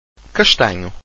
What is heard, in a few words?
"castanho", Portuguese